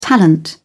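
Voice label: "talent", British English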